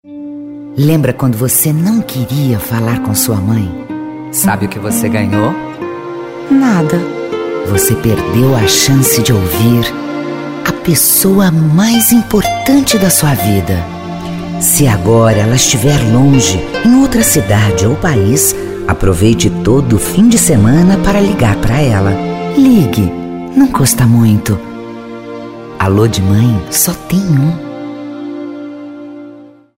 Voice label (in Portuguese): COLOQUIAL